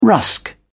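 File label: "rusk", British English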